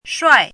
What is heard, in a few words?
"shuai4", pinyin